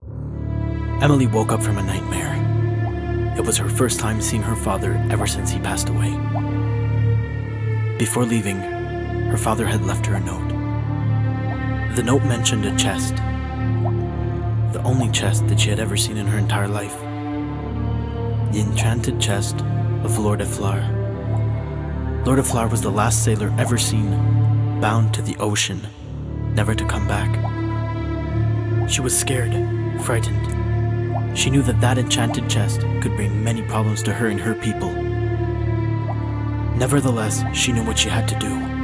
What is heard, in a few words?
VOICE VIDEO GAME; Démo narration (anglais)